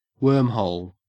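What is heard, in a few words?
"wormhole", Australian English; pronunciations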